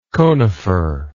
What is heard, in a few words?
"coniferization", English